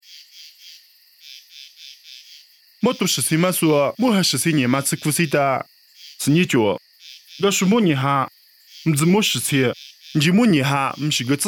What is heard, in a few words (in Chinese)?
凉山彝语; 地中海凯撒利亚; nuosu